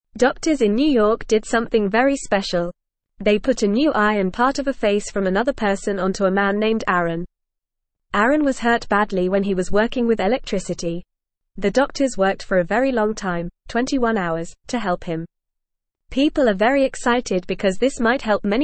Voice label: English Newsroom Beginner FAST Reading; Amazing Man in New York gets new face

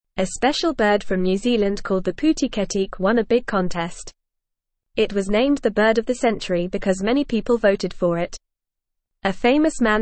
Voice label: English Newsroom Lower Intermediate FAST Reading; John Oliver helps funny bird win contest

